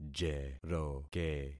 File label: "jeroke", concat syllables; Affixing experiment